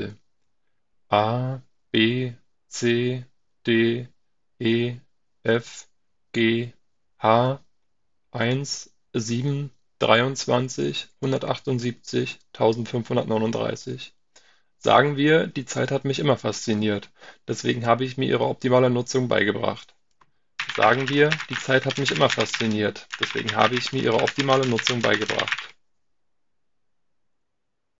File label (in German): Mikrofontest Sennheiser EPOS ADAPT 660 via Sennheiser BTD 800 USB